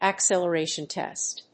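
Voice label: "acceleration test", English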